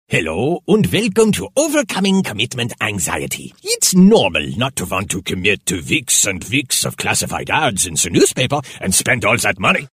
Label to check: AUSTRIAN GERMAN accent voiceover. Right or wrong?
Right